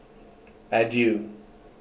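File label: "adieu", Canadian, male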